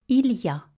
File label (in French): "il y a", French; vowels